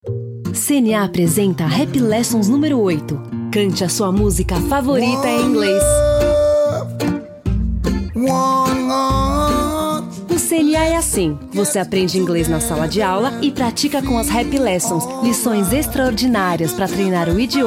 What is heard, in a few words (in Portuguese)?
locução spot; CNA Happy Lessons